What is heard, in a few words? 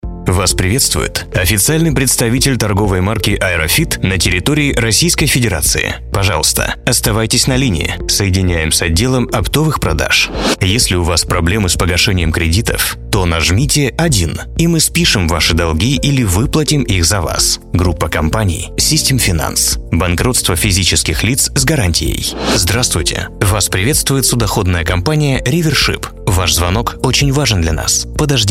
IVR